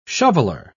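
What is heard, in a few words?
"shoveler", British English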